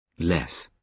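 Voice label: "less", British English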